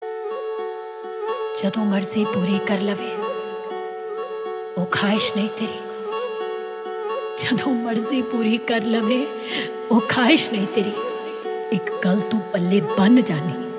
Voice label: Song Dialogue Ringtone